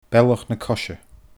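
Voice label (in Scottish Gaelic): "Bealach na Coise", Scottish Gaelic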